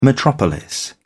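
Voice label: "metropolis", English, British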